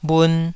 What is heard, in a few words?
"bhun2", taiwanese Syllables